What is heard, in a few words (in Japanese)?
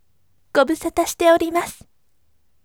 voice gobusata shitemasu yasashime